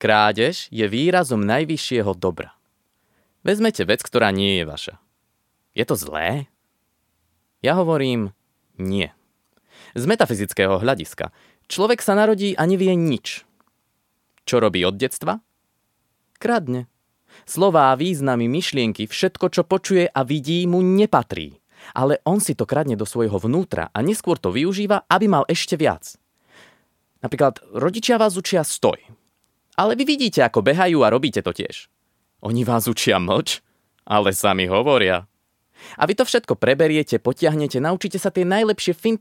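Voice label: Slovak, General; GoLocalise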